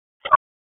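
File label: Raleigh Police Southwest District Tactical, p25; wake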